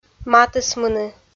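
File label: "матысмыны", Komi, female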